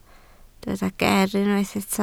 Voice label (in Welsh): "geer" Welsh